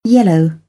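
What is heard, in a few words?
"yellow", British English; AmericanBritish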